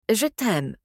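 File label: Eternal love, French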